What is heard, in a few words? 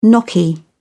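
"gnocchi", British English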